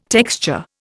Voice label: "texture", Indian

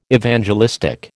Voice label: "Evangelistic", American

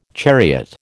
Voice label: "chariot", American

